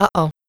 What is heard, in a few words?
uh oh; twitch